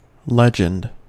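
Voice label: "legend", US English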